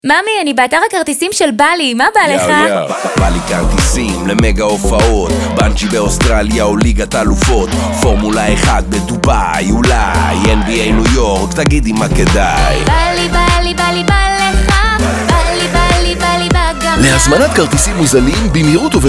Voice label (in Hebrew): בא לי פתיח אישה ורסיה שנייה